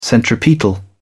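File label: "centripetal", British English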